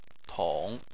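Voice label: "tong", Chinese, third tone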